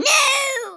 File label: "NOOO", Stiff Upper Lip